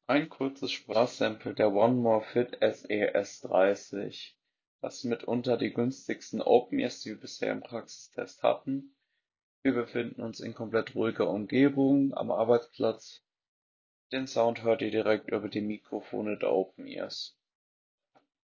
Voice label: Test Mikrofon; 1More Open Fit SE S30